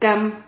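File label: "cam", Spanish syllable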